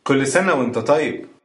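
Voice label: birthday, Egyptian Arabic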